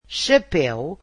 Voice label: "hat", Portuguese